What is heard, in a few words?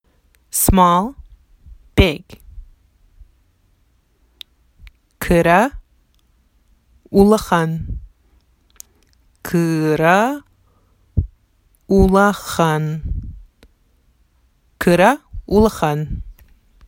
Sakha Small big